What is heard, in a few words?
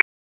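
Police District Dispatch; wake; p25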